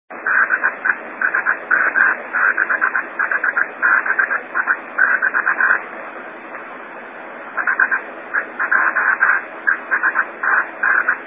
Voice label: 2m, vhf